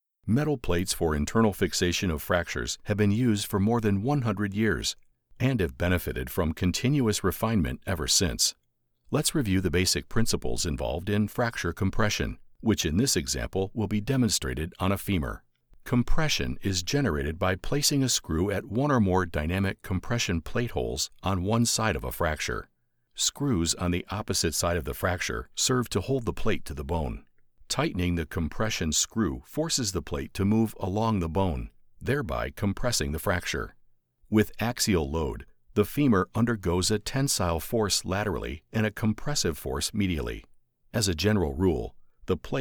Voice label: Instructional